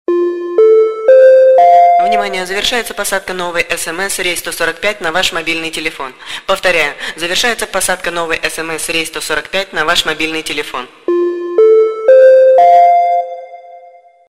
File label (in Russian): zavershaetsya posadka sms rech dispetchera o posadke sms